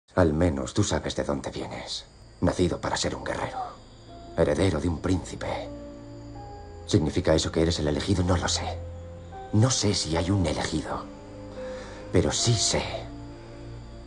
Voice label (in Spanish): demo doblaje